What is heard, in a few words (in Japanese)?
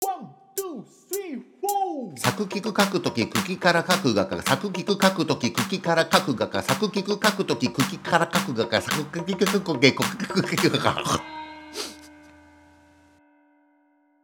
早口言葉リズム（中級編）